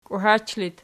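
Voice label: "kuhach" locative, Archi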